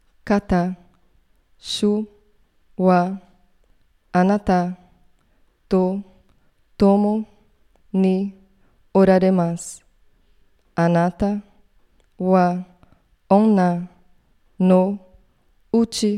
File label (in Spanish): ave maria japones pausado